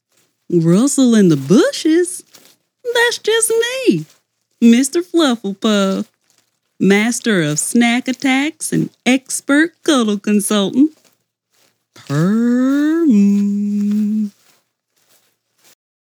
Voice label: Sweet Creature, voice-over